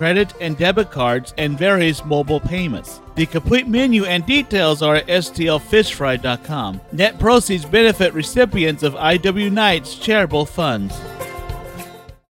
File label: Fish Fry Radio Spots; IWKnights Lenten; 2025